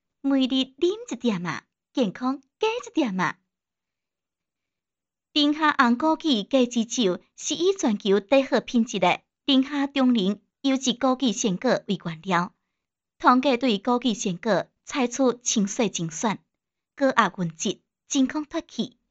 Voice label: 方言 闽南语

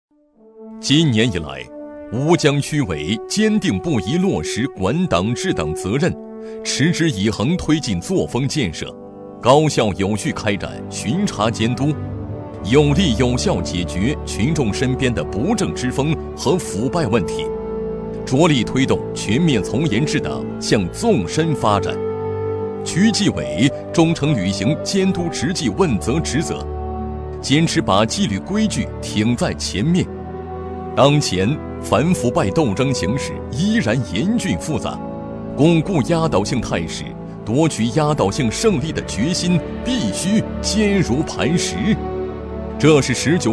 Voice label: 男 专题 正风反腐; 吴江区

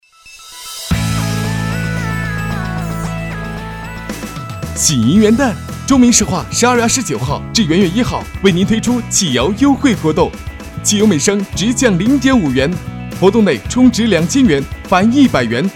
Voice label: 男 广告 活动广告 欢快

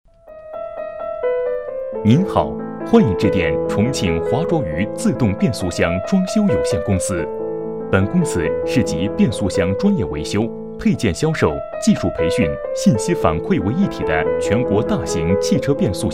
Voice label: 男 彩铃; 自动变速箱专修公司

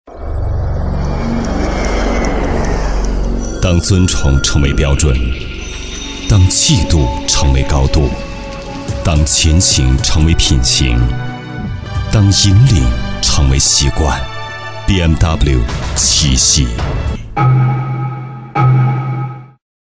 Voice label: 男37 广告; BMW7

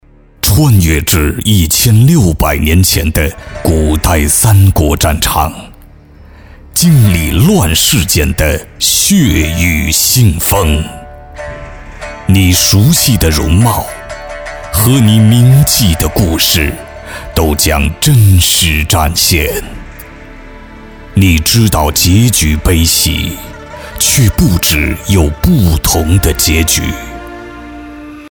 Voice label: 男 旁白

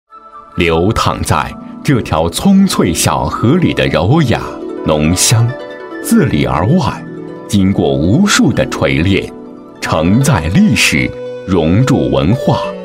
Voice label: 男 专题; 地有缘专题

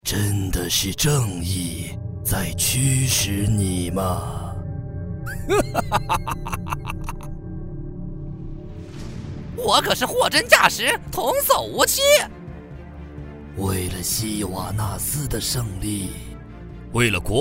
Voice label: 男97-动漫-角色灵活多变

